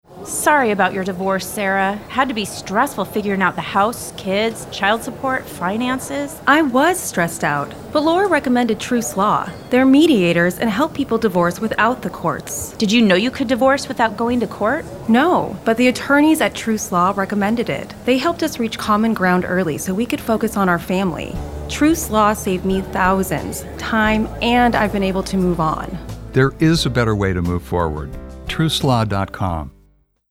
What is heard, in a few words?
Radio Commercial